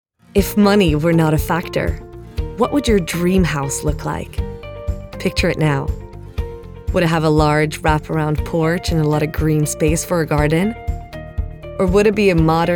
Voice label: English Commercial